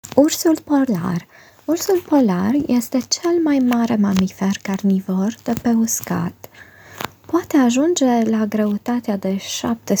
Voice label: Romanian audio; Polar Bear